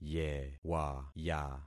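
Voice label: "yewaya", concatenated syllables; Affixing experiment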